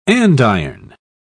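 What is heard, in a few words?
"andiron", English, British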